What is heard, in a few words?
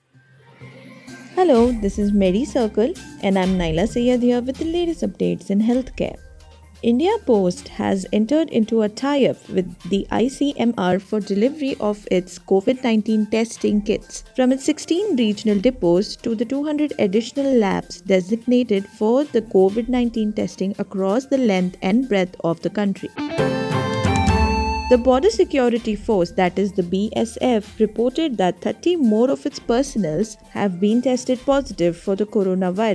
english news